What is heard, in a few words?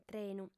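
"trenu", Sardinian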